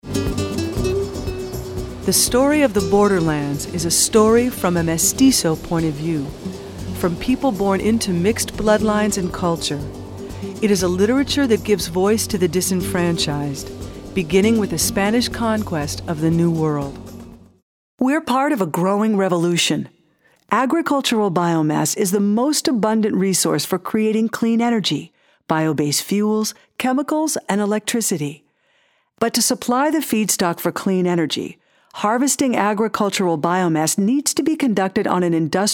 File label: Narration